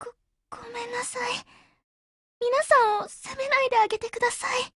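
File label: BREAK Japanese; CAR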